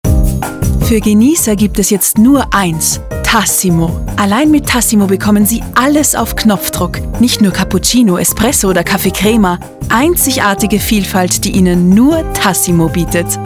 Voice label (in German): werbung; Demo Werbung (DE); Tassimo